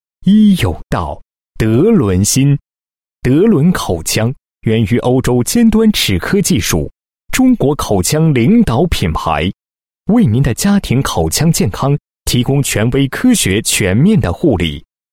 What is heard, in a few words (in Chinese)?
男 彩铃 中英文电话语音, 配音; 口腔医疗中英文电话语音